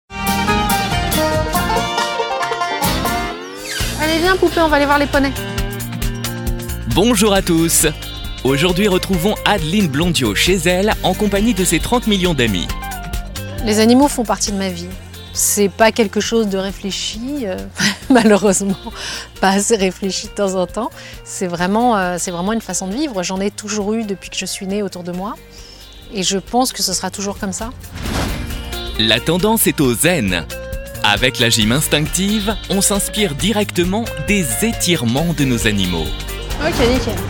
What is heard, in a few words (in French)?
souriant, voix off homme; 30 MILLIONS D'AMIS France3 sommaire